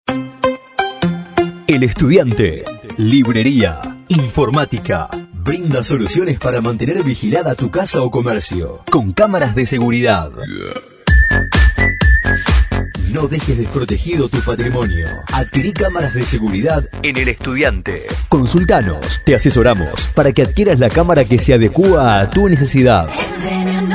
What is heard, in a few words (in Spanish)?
publicidad